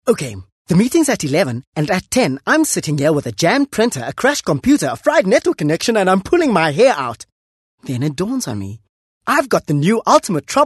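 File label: English Conversational South African Neutral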